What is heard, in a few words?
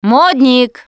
name gambler, russian, Survivor Voice Producer